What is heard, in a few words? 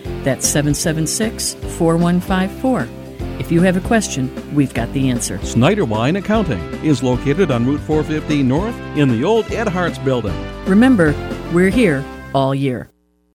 payroll ad